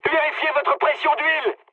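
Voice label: French damaged oil mood high; War Thunder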